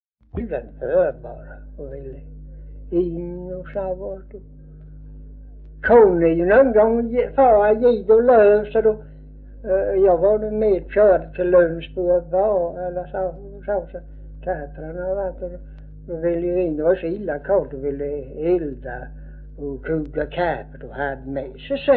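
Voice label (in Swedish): Örkened Skåne, svenska sagor dialekter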